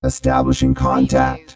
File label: Robot voice, "establishing contact"; lynne publishing